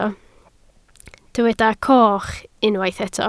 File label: "coch", Welsh